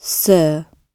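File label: "sir", British English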